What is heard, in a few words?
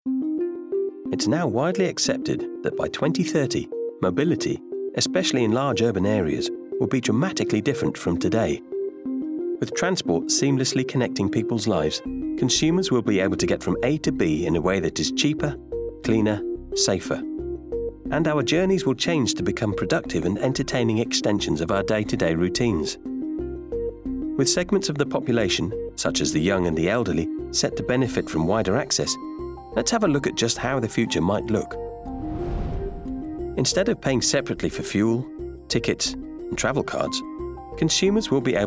Corporate; KPMG